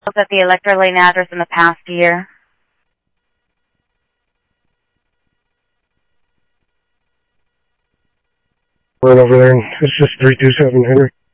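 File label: Sheriff West County, scanner audio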